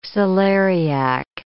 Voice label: "celeriac", English

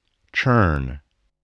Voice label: "churn", English, voice

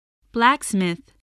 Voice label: "blacksmith", spelling

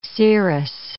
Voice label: "cerous", English

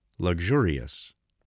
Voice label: "luxurious", spelling voice

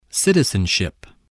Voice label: "citizenship", English, voice

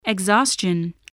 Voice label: "exhaustion", spelling

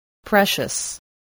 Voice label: "precious", voice, spelling

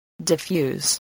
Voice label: "diffuse", english spelling

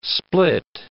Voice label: "split", english

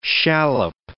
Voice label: "shallop", English

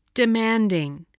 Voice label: "demanding", English

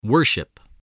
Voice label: "worship", voice, english

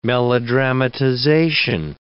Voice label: "melodramatization", English

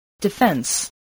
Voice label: "defense", English

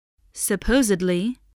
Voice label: "supposedly", English

